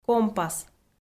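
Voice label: "компас" Komi, female; audio dict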